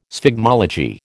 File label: "sphygmology", American